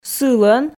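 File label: "сылӧн", Komi, female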